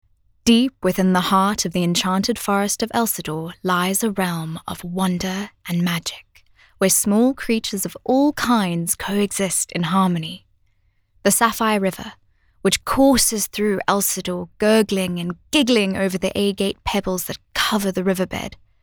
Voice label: Narrative Fiction

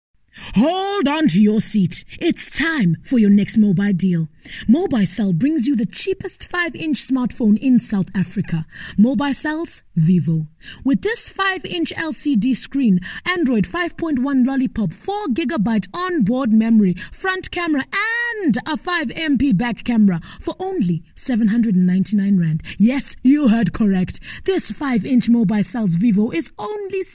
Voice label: English Hard Sell

